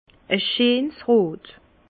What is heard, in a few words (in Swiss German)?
"scheensrot", Bas Rhin; vin; rouge franc